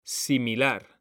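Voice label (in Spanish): "similar", Spanish; mekanta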